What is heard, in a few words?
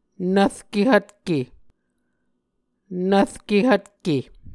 "nvrke hvtke", Muscogee